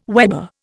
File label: "weber", Indian